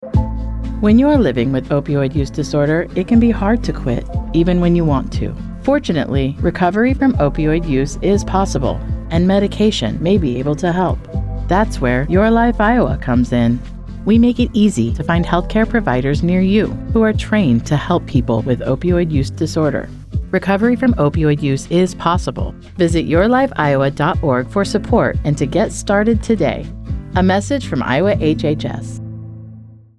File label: female; YLI MOUD Use Disorder